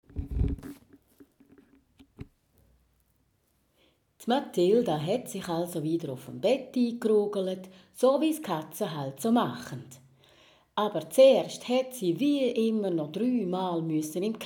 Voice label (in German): Erzählerin Bündner